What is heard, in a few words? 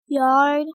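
"yard", English word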